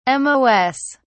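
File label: "mos", British English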